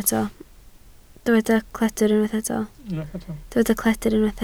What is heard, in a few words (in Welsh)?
"cledr", Welsh